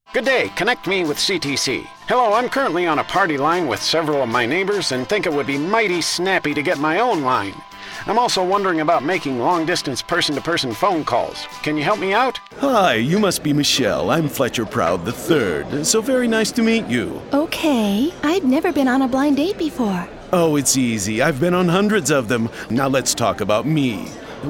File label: Commercial Characters